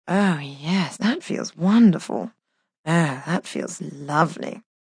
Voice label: That Feels Lovely, Female; Sex Sound Effects

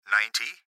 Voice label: Gps Voice, Male; Ninety; Laptop Speaker Adult Male; Recorded Voice; Blastwave

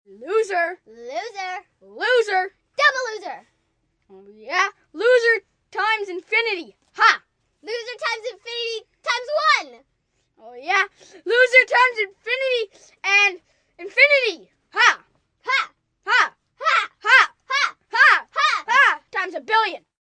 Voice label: Conversation, Conversation, 2 children, 10 yrs.; Conversation Losers; VoiceBox

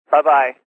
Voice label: Phone Bubye, Male, Telephone Voice; VoiceBox

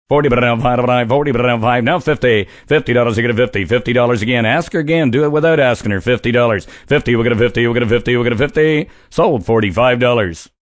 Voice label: Auctioneer, Male, Auctioneer rambling; Talking Sound Effects; VoiceBox; Twistedtracks

